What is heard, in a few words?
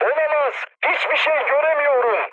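searchlight, mood med, speech tur; War Thunder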